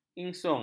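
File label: "ingsun", Malay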